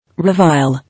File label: "Revile", English